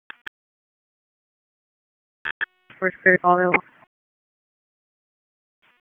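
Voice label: EMS Dispatch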